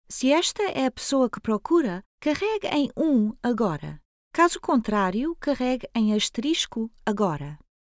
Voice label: Directory Instructions, voice prompts, portuguese